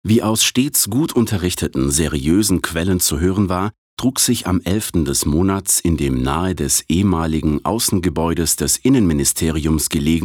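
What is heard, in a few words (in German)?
Kommentar, Trocken, Neutral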